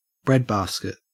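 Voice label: "breadbasket", Australian English; pronunciations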